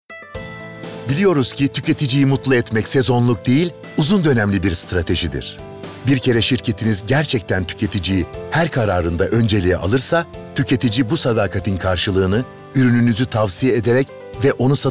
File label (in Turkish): Tanitim